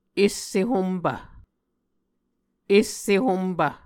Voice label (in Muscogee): "esse hompv", Muscogee